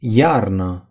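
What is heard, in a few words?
"winter", Romanian; gcompris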